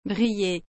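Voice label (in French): "briller", French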